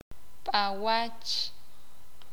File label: "Ojear", sakapulteko